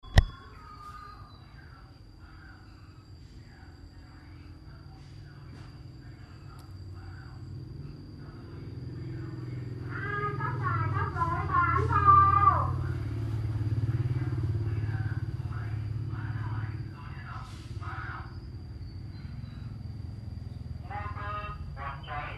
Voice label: street caller, Vietnam; 2019